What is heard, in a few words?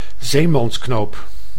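"zeemansknoop", Dutch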